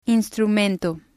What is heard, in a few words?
"instrumento", spanish